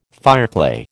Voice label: "fireclay", American